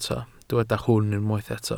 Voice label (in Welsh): "hwn" Welsh